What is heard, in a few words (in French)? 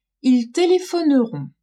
"ils telephoneront", french